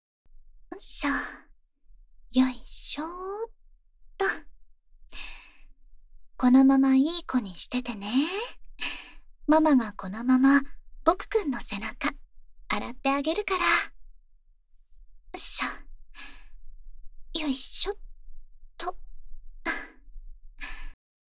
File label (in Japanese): お風呂でママのあわあわあまあま背中流し（簡易モノラル編集 効果音なし）, ASMR